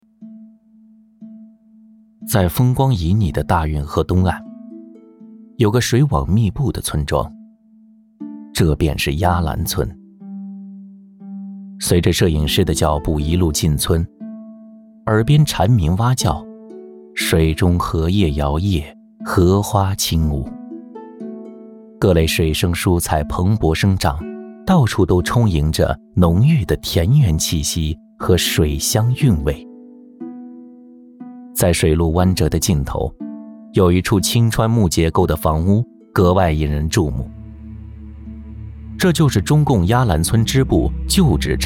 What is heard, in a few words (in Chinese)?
纪录片; 鸭兰村